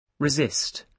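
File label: "resist", British English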